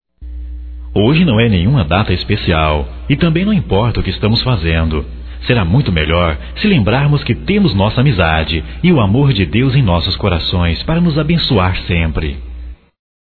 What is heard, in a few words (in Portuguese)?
Amizade Geral Masculino, Só Voz; Telemensagens; Telemensagens 2017